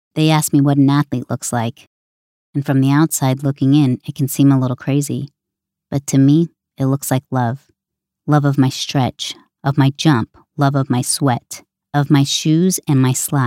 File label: US PHONE